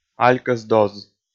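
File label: "алькӧс доз", Komi, male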